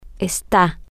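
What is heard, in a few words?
are Temporary, Spanish, female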